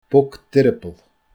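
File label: "bog d earball", Scottish Gaelic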